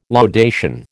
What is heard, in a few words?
"laudation", American